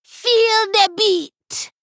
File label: Female Troll